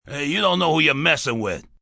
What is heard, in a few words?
Know Messin With, Attitude, male: 'Hey, you don't know who you're messin with', (human voice speaking); Talking Sound Effects